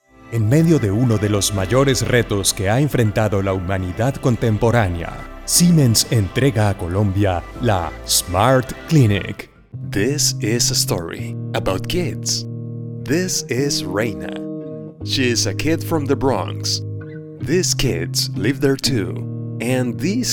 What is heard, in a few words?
Institutional